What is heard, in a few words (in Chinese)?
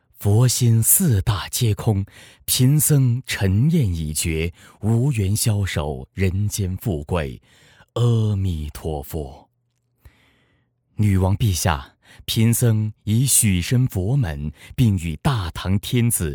男 模仿唐僧; 年轻